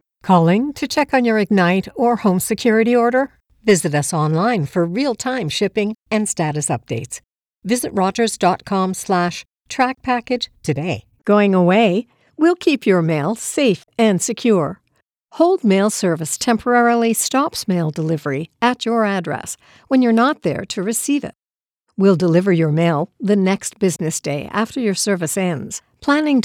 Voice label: On-holds